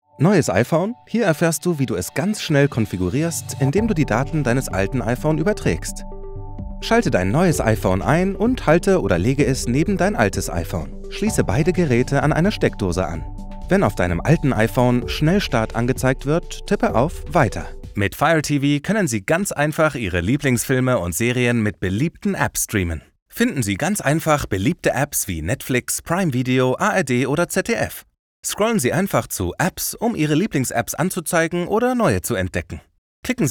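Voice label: German Compilation Explainer Films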